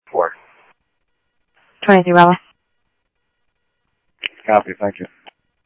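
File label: Phoenix police department, scanner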